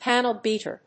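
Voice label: "panel beater", English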